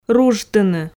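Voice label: "ружтыны", Komi, female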